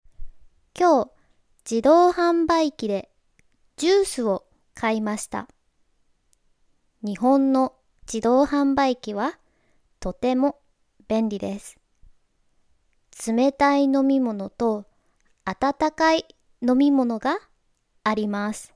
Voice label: slow japanese vending machines, reading practice